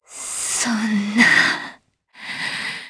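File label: voice, Japanese; DarkFrey; kingsraid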